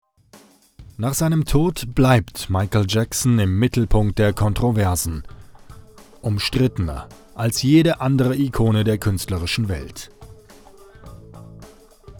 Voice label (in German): sprecher doku; michael jackson